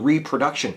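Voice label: "reproduction", US English; pronunciations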